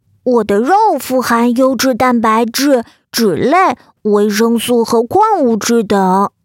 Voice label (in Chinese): 角色憨厚可爱男童科普动画